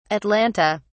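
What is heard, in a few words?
"atlanta", English, uk British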